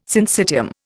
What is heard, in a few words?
"syncytium", Indian